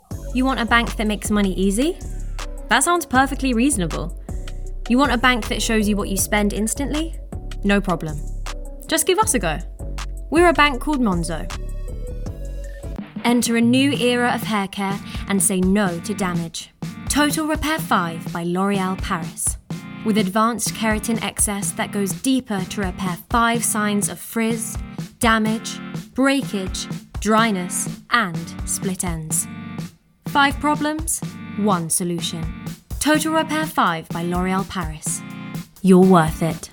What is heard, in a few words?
female English commercial compilation; english southern accents